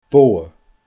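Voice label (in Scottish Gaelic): "Bodha", Scottish Gaelic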